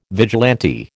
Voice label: "vigilante", American

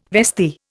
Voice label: "vestee", Indian

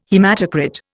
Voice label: "haematocrit", Indian

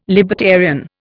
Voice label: "libertarian", Indian

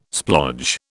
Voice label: "splodge", British

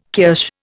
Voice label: "kirsch", Indian

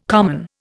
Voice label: "common", Indian

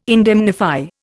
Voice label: "indemnify", Indian

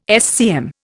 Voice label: "SCM", Indian

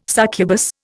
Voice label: "succubus", Indian

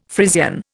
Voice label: "Frisian", Indian

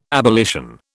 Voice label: "abolition", British

